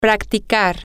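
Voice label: to Practice, Spanish, female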